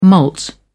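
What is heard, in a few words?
"malt", English, uk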